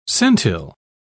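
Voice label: "centile", British English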